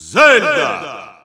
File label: Russian Announcer; Zelda; SSBU